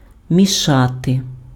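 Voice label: "мішати", Ukrainian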